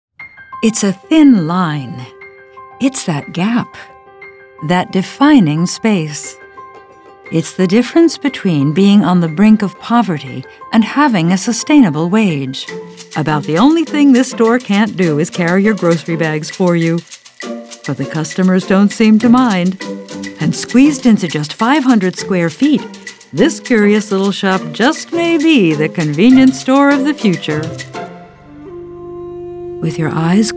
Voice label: 40s50s Female Narration

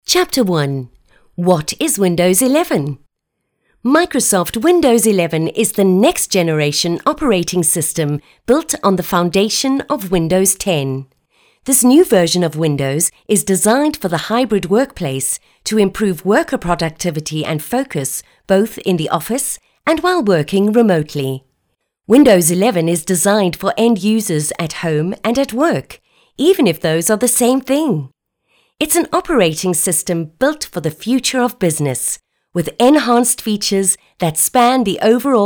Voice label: 40s 50s Female British South African

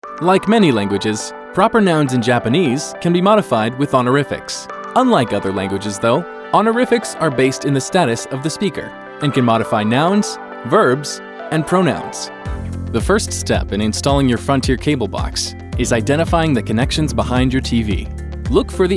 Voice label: 20s30s Male eLearning

